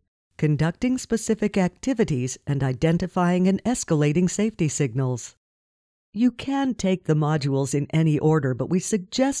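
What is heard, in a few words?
Narration Medical Female, Strong, Sexy, Vibrant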